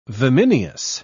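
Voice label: "vimineous", English, British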